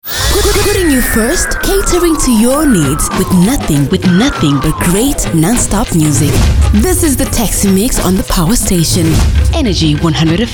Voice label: Promo; Taxi Mix Promo; Energy 100